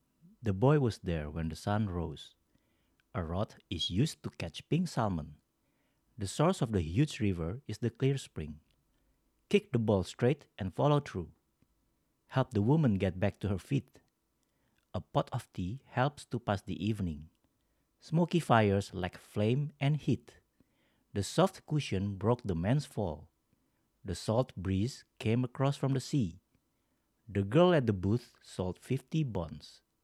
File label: RODE XDM 100